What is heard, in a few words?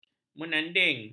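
"menanding", Malay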